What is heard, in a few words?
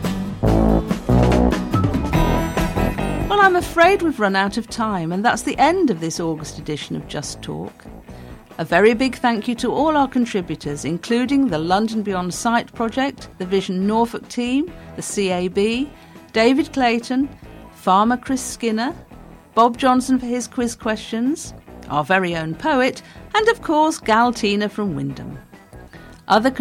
Closing Announcement